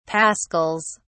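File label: "pascals", British English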